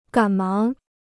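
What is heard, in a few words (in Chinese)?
"赶忙" Mandarin Chinese; gǎn máng; to hurry; to hasten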